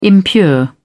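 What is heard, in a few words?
"impure", British English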